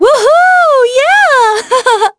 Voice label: Happy; Veronica; kingsraid